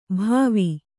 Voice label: "ಭವಿ", Kannada

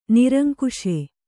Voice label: "ನಿರಂಕುಶೆ", kannada

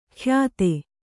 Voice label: "ಕ್ಯಾತೆ", Kannada

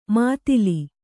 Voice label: "ಮುತ್ತಲು", Kannada

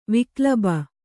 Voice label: "ವಿಕ್ಲಬ", Kannada; viklaba; noun; intense emotional suffering; grief; accute sorrow.

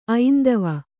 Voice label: "ಐಂದವ", Kannada

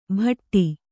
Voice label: "ಭಟ್ಟಿ", Kannada

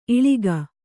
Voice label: "ಇಳಿಕು", kannada

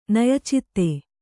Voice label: "ನಯಚಿತ್ತ", kannada

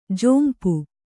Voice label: "ಝೊಂಪು", Kannada

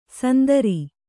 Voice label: "ಸಂದರಿ", Kannada

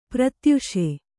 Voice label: "ಪ್ರತ್ಯಾಶೆ", Kannada

